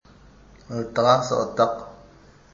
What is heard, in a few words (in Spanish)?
qom lechuza; materiales bilingues; huellas